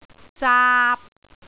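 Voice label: "zaap3", Cantonese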